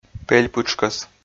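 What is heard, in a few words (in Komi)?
"пель пытшкӧс", Komi, male; audio dict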